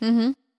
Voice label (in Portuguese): Confirmaçao neutra